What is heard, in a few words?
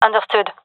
agree, fem; vo; gendermerie fem epic